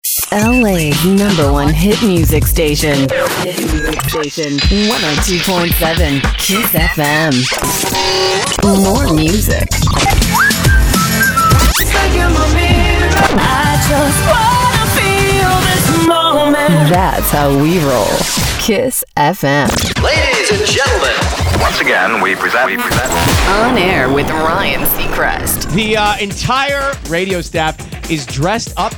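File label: Top 40, female voice actor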